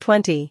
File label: "twenty", voice